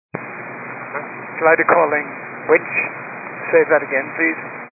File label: 122 725MHz, scanner radio